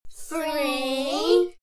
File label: kids counting "three"